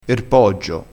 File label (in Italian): "poggio", crespinese